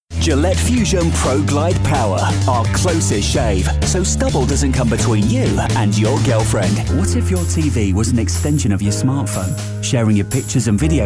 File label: male voice, showreel